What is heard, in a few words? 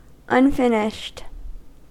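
"unfinished", US English; pronunciations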